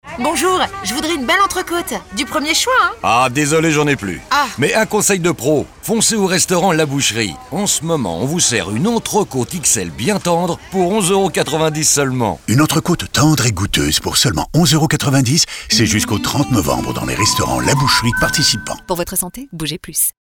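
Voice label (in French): comedie menagere, voix off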